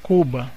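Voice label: "cuba", Brazilian Portuguese; gcompris; geography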